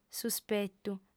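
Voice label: "suspetu", female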